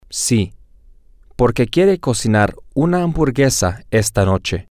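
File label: yes because he wants to cook a hamburg, Spanish, male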